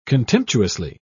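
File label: "contemptuously", British English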